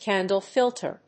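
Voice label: "candle filter", English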